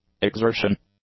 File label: "exertion", English; nouns